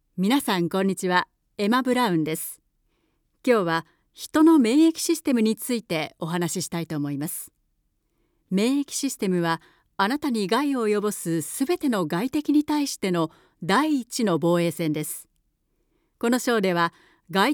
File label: japanese explainer videos